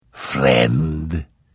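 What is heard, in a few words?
Hello, Vo; Morrowind GOTY GOG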